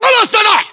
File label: location rooftop, localized english battlechatter